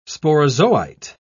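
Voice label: "sporozoite", British English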